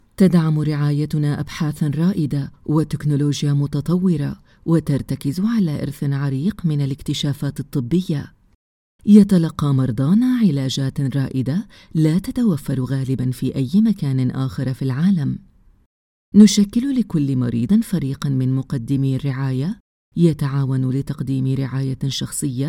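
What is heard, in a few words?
Arabic, male, Medical video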